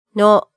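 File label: "no", Japanese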